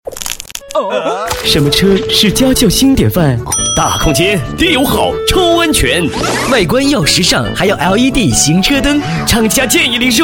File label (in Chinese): 男 广告 年轻活泼广告录音, 国语男声配音